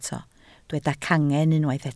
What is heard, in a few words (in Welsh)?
"cangen" Welsh